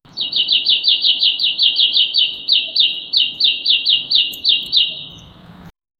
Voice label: soft quranic recitation, calming

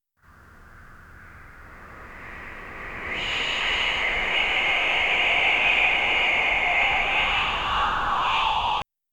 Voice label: creepy pa system whispers

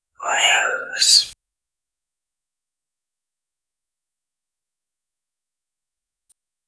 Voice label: quiet whisper of someone

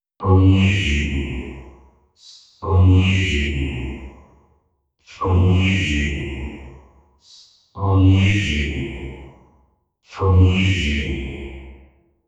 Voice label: deep male voice saying hi, robotic

